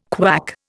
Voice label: "KWAC", Indian